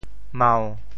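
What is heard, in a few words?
"mau1", Teochew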